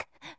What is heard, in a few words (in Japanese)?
"ku", shonen